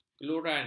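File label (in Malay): "keluron", Malay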